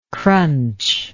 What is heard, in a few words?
"crunchable", english